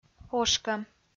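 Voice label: "ошкӧм", Komi, female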